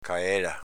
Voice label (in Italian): "caela", dialetto veneto